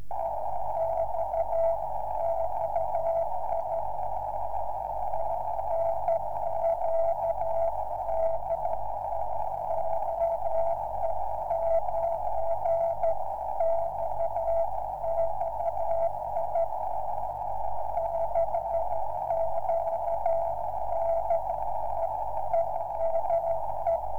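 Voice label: cq, 1296 MHz; EME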